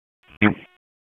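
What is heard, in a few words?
FIRE Operations, p25; FIRE OPS 2; wake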